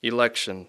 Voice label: "election", English

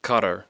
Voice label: "Qatar", English

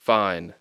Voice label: "fine", English

